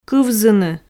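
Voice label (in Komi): "кывзыны", Komi, female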